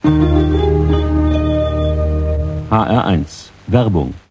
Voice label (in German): werbung